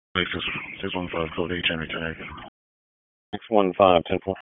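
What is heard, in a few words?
Sheriff's Office Dispatch 1, p25; wake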